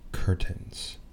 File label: "curtains", anglais